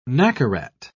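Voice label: "nacarat", British English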